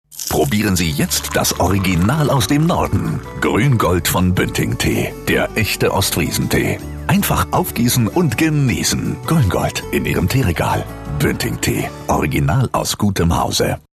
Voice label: TV Commercials General public, German voice-over, Convaincant et Joyeux; Grüngold